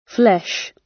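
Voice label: "flesh", British English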